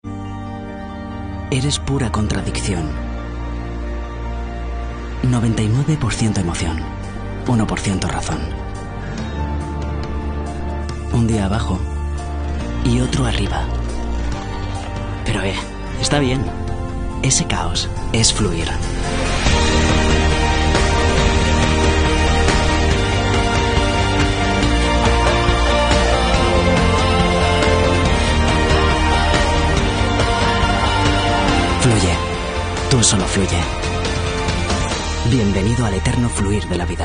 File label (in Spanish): Spot inspiracional; Quokka